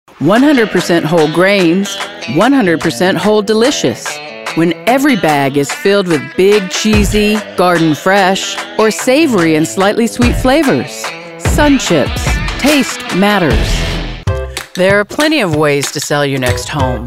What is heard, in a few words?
COMMERCIAL